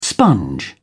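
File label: "sponge", British English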